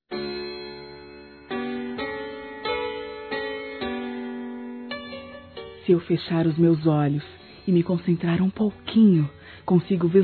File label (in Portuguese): DESCANSA TUA BOCA NO MEU BEIJO (F), Sensuais; Telemensagens; fem